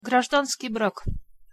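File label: "grazdanskij brak", Russian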